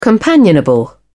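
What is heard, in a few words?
"companionable", British English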